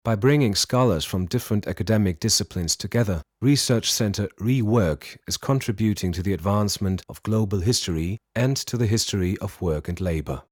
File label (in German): Sprechen Englisch